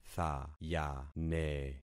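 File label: "thayane", concatenated syllables; Affixing experiment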